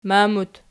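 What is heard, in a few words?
"mam" plural, Archi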